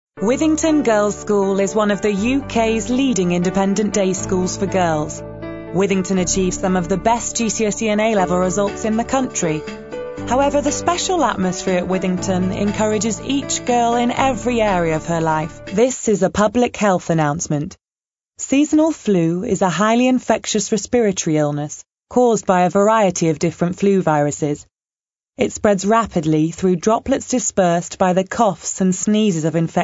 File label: corporate montage